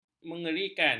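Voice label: "mengerikan", Malay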